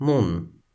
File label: "mun", Toki Pona; lipu linku; kalama